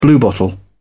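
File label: "bluebottle", British English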